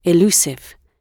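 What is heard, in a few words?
"elusive" British English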